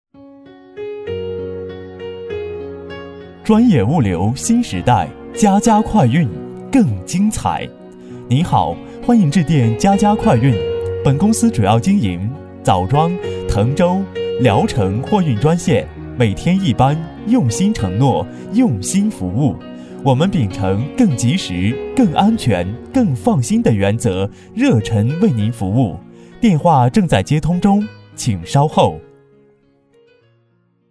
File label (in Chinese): 男 彩铃 快运, 国语男声配音; 家家快运